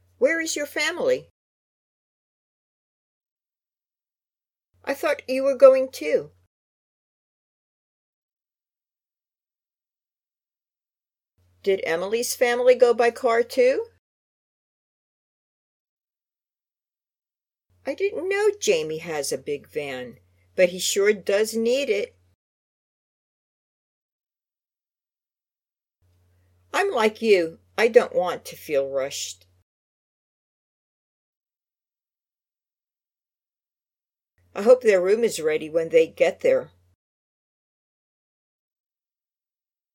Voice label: Natural Dialog Neighbors Part